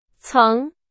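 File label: Chinese; hsk4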